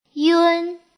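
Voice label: "yun", Chinese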